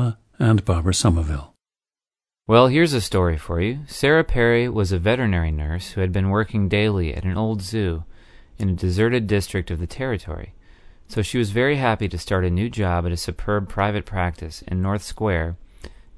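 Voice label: new york, International Dialects of English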